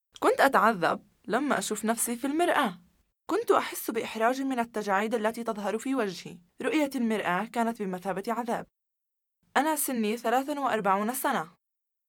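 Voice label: Arabic, female, corporate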